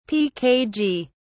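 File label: "pkg", British English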